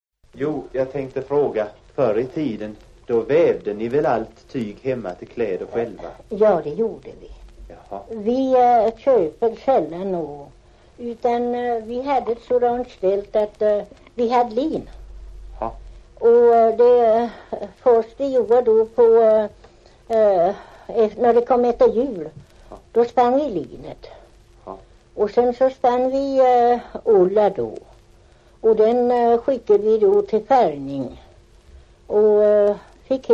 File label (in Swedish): Gardby, dialekter